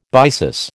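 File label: "byssus", American English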